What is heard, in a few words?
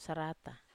"sarata", Wamesa